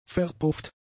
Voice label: "verpufft", german; adjectives

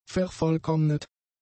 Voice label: "vervollkommnet", German; adjectives

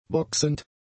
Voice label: "boxend", German; adjectives

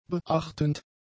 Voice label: "beachtend", German; adjectives

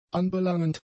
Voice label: "anbelangend", German; adjectives

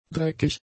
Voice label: "dreckig", German; adjectives

